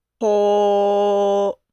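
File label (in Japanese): "ほ", Japanese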